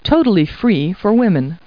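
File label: woman; Talking Clip Words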